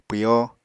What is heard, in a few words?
"pwyo", Apatani